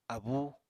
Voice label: "abúu", apatani

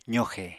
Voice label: "zoxe", Apatani

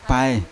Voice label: "paye" bones, Yawa

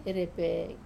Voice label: "repe", to lick, Wamesa

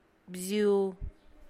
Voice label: type of dove, Zapotec; bzyu; (Spanish) tipo de paloma

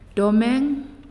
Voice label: "Heart", Naasioi

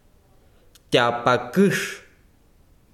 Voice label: besiro cuerno, chiquitano

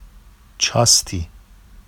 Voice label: “ʧɑsti”, Vafsi; n noon; چاستی

